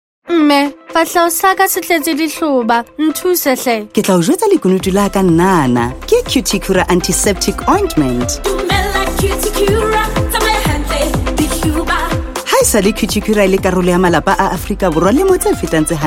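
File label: Sesotho, Commercial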